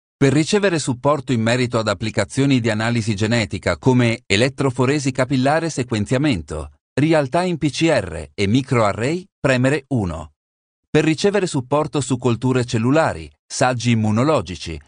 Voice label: 意大利语男 外语 小语种

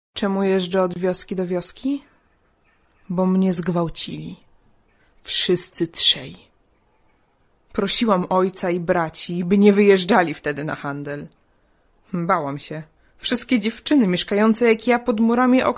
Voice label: 波兰语女, 外语; 小语种

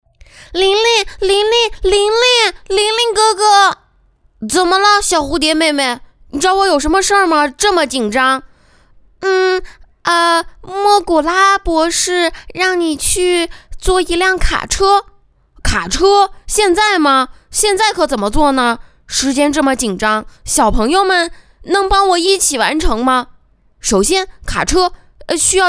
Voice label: 男童女童音

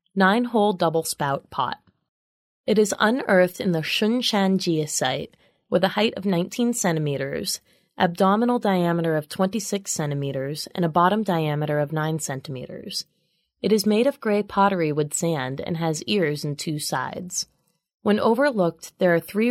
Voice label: 外籍女英 外语 美式英语 导览类

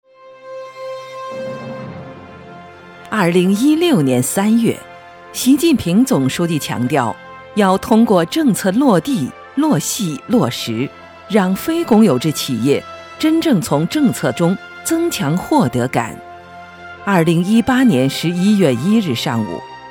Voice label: 专题 政府 大气; 检察护航

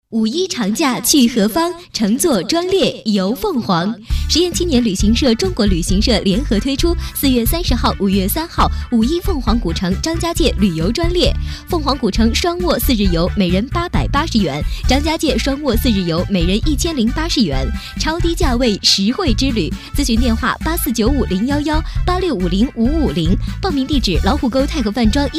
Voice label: 广告 旅游 旅行社 欢快

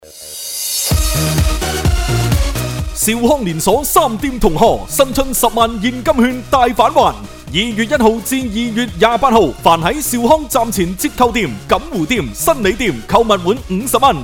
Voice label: 男粤 广告 促销 店庆促销样音 年轻, 粤语

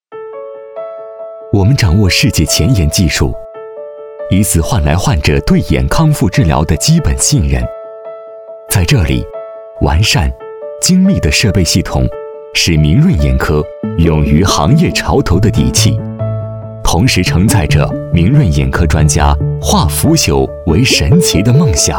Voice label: 广告 医院医药 眼科 亲切

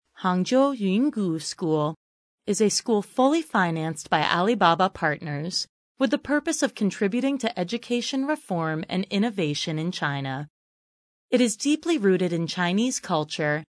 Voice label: 外籍女英 外语 美式英语 宣传片 自然

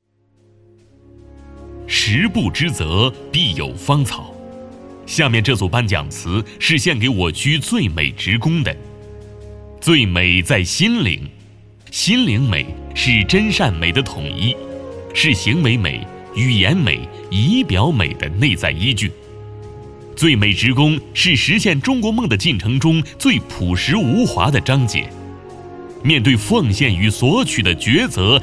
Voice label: 专题, 颁奖, 感动颁奖最美职工, 浑厚

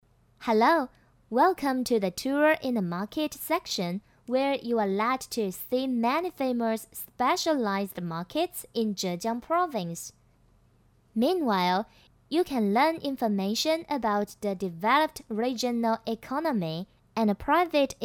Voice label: 多媒体 语音导游 英文导游词

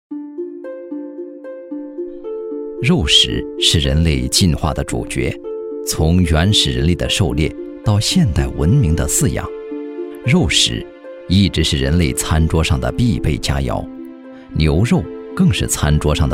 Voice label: 纪录片; 舌尖; 美食舌尖上的中国

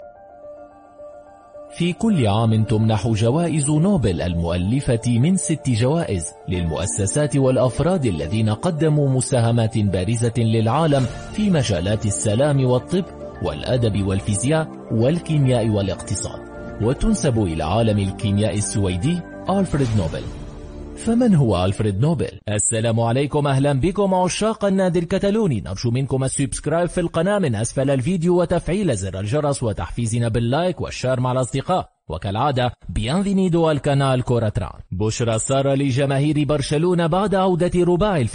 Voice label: 阿拉伯语男 外语 小语种 稳重

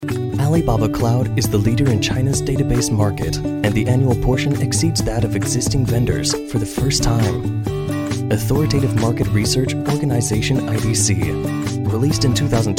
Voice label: 外籍男英 外语 美式英语 产品介绍 磁性

